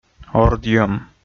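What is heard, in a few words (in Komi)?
"ордйӧм", Komi, male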